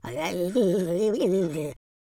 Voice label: scared